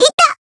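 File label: Voice damaged, Japanese; yukino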